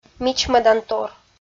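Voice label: "мичмӧдантор" Komi, female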